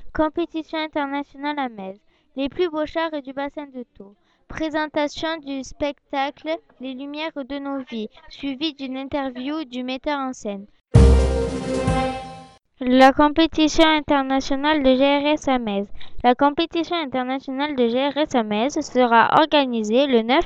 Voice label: Radio Flash

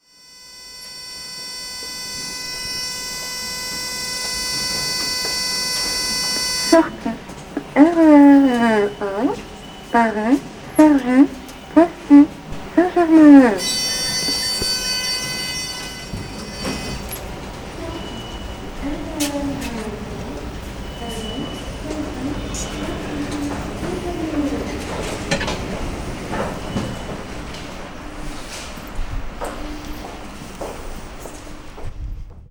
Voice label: Annonce ascenseur RATP

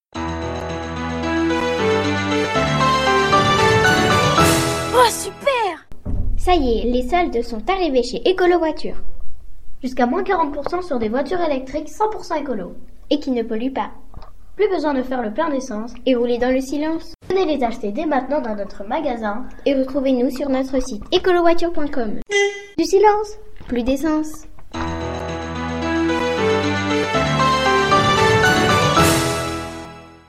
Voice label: pub ecolo; gentiane

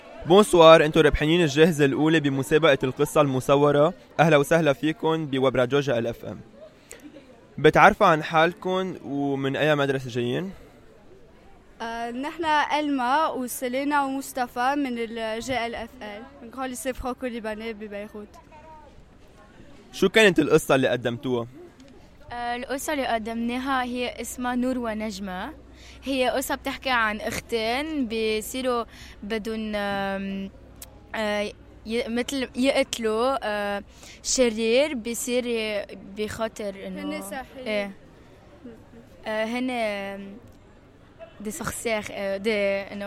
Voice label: micro trottoir

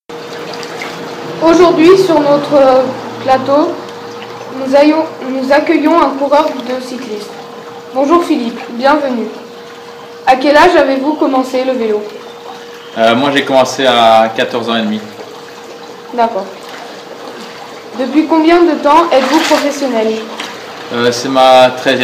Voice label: interview

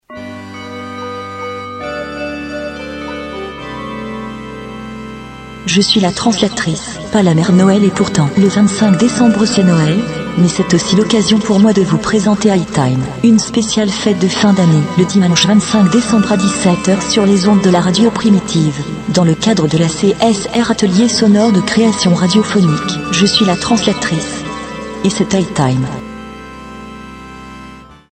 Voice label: annonce

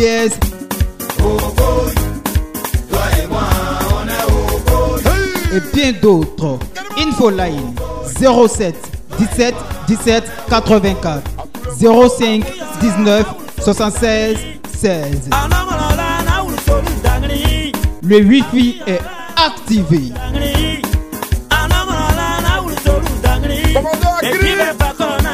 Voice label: spot anniversaire

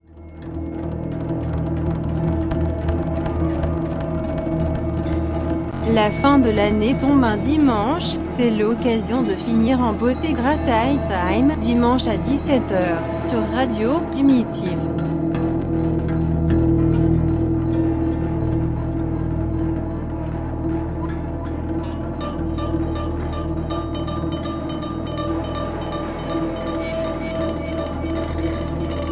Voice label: annonce

